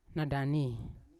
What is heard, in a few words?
"nadani", Yawa; five; unat